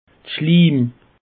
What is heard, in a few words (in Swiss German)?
"schliim", alsace; peche